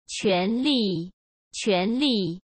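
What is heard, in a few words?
"quan2 li4", Mandarin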